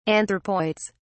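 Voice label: "anthropoids", English, British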